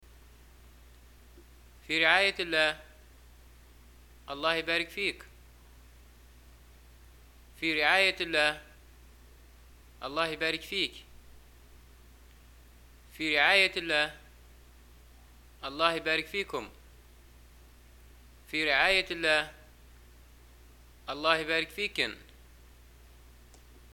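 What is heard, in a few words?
Libyan greeting farewell, arabic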